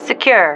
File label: "secure", female